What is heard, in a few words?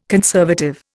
"conservative", Indian